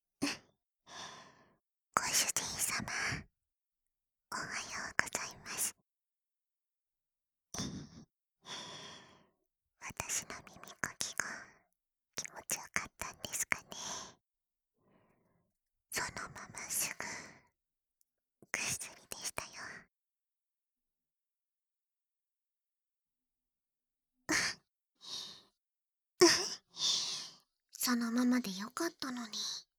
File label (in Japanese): 縁側で2人寄り添って (バイノーラルマイク 効果音心音のみ)